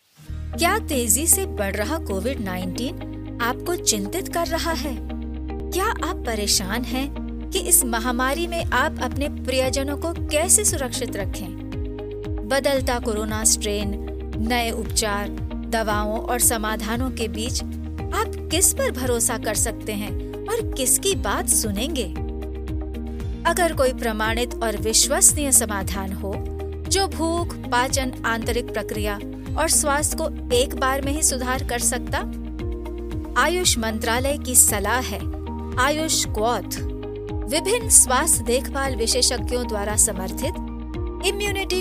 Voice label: hindi